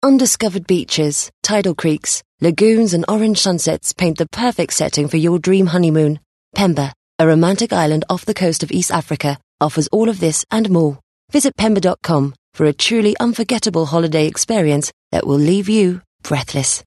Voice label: English Soft Sell British Accent